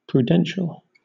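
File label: "prudential", British English; pronunciations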